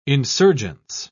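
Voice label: "insurgence", British English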